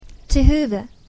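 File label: "to hoover", English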